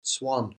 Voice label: "swan", English spelling